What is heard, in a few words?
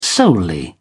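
"solely", English, British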